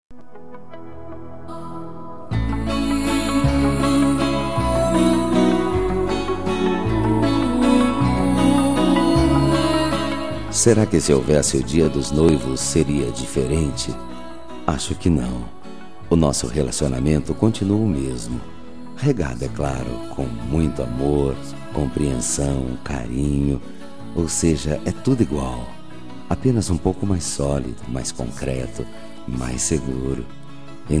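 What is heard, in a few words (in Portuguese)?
Namorados pra Noiva, male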